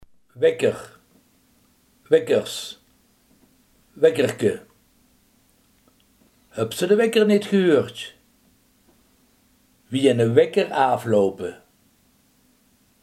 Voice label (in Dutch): "wekker", limburgse